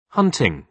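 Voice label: "hunting", British English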